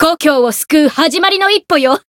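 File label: variable chant, Japanese; kikyou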